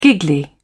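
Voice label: "giggly", British English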